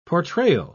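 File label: "portrayal", British English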